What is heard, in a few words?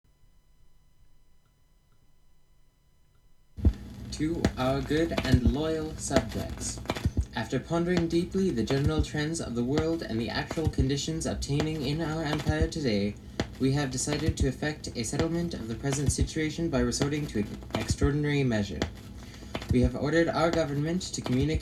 Jewel Voice Broadcast